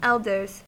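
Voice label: "elders", American English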